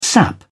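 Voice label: "sap", British English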